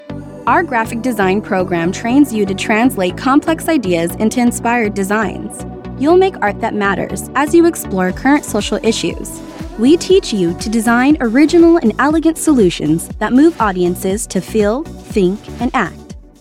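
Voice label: Intelligent female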